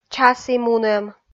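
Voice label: "часі мунӧм", Komi, female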